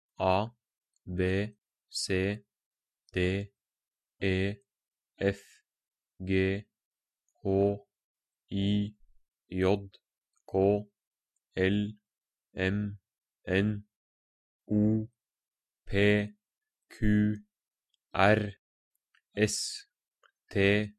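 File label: Norwegian alphabet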